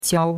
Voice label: "qiao1", chinois; Cours-de-Chinois

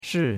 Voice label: "shi4", chinois; Cours-de-Chinois

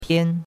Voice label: "pian1", chinois; Cours-de-Chinois

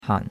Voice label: "han3", chinois; Cours-de-Chinois

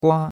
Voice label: "gua1", chinois; Cours de Chinois

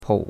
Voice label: "pou3", chinois; Cours de Chinois

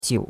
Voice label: "qiu3", chinois; Cours-de-Chinois

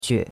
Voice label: "que3", chinois; Cours-de-Chinois

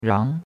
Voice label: "rang2", chinois; Cours-de-Chinois

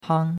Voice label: "hang1", chinois; Cours-de-Chinois

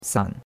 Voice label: "san3", chinois; Cours-de-Chinois

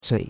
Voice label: "cui3", chinois; Cours-de-Chinois

